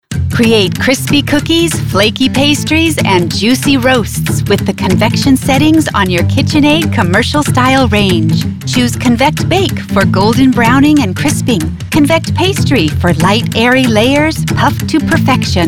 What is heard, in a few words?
NARRATION